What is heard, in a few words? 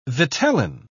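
"vitellin", English, British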